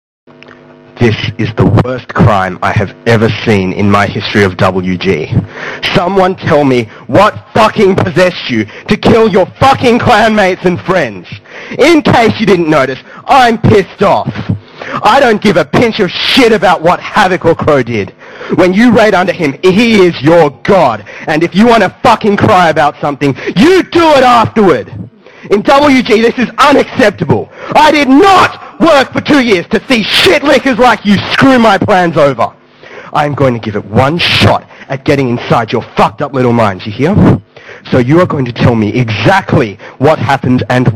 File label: Teamspeak Rant